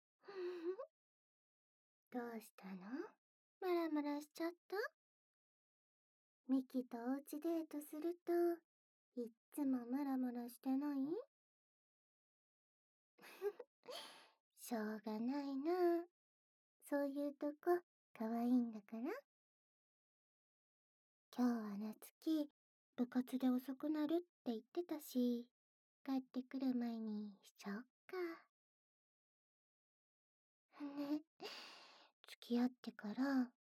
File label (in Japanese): (効果音なし) イジワル乳首責め耳舐め; 特典関連 彼女になったミキのイジワル乳首責め耳舐め